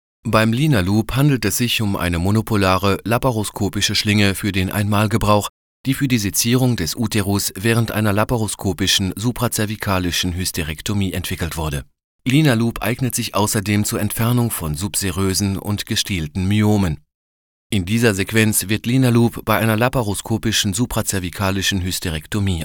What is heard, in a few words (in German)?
German Medical